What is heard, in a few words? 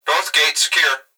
Emergency Human Male Voice Plant Security Dispatch North Gate Secure; emergency effects